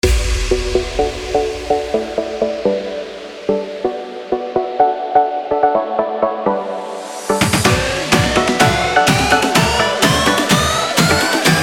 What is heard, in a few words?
narrator